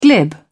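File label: "glib", British English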